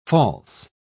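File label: "false", English, British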